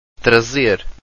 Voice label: "trazer", Portuguese